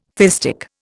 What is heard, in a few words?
"fistic", Indian